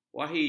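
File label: "wahi", Malay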